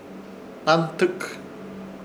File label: "Hnantɨk" negro, Ishir Chamacoco